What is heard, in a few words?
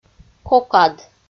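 "кокад" Komi, female; audio dict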